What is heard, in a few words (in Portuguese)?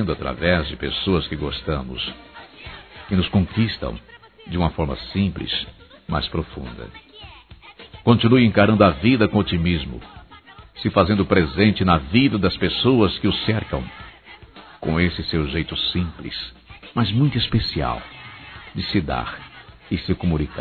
Aniversário Sobrinho Masculino; Aniv Familiar